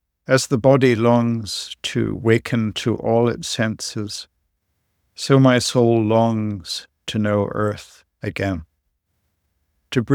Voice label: Walking Meditation without Music